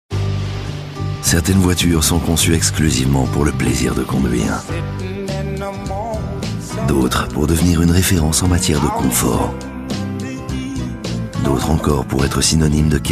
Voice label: spot TV, french voice; Renault Laguna